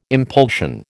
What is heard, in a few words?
"impulsion", American